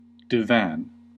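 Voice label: "divan", American English; pronunciations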